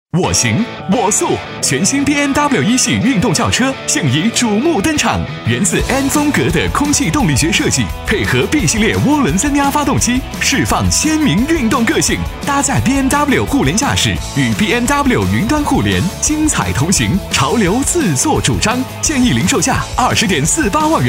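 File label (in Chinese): 男 广告（年轻活力）; BMW1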